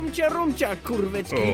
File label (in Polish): po polsku dubbing; gruby nie zyje